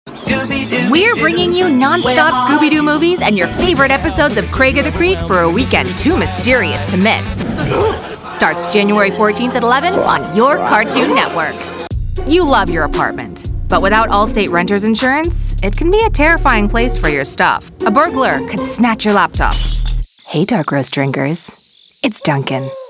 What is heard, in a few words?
Commercial